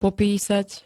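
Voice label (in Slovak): "popísať", Slovak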